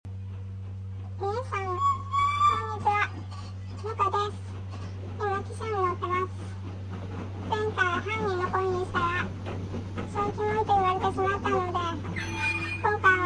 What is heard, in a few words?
voice message; happymeal